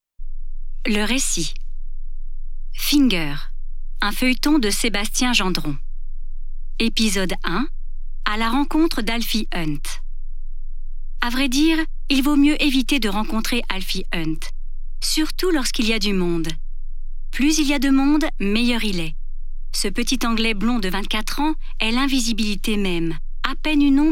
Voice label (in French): Narration